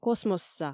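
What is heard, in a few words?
"космосса", Komi, female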